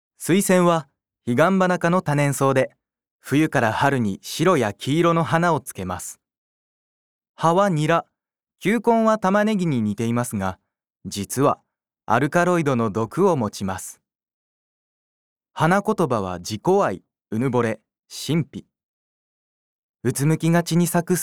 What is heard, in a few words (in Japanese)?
ナレーション